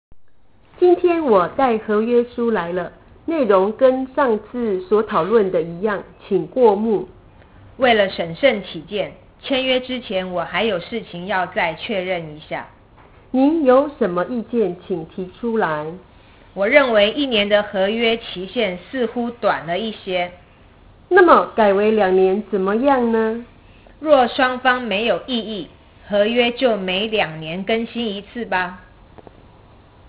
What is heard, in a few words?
Chinese; 契約